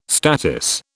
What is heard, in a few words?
"statice", British